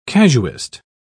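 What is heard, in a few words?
"casuist", British English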